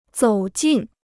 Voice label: "走进", Chinese

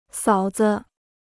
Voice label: "嫂子", Chinese

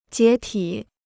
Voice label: "解体", Chinese

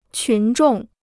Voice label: "群众", Chinese

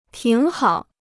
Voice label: "挺好", Chinese; 挺好 (tǐng hǎo); very good

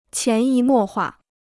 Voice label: "潜移默化", Chinese

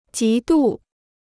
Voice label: "极度", Chinese

